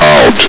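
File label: "out", male; hl2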